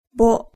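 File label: "bo", Japanese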